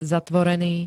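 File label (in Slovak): "zatvorený", Slovenské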